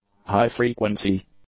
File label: "high-frequency", English; nouns